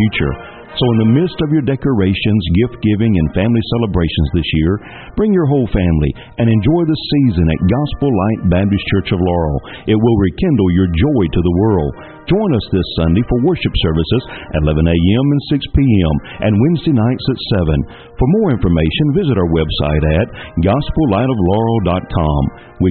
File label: Christmas Promo; Gospel Light